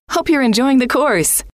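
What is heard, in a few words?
hope you re enjoying the course, female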